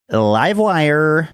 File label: saying live wire funny